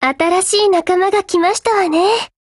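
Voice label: BUILD OVER Japanese, Voice; GF Alarm; G36C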